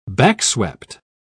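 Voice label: "backswept", British English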